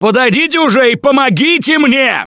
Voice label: Ledge Hang End, russian, Survivor Voice; Gambler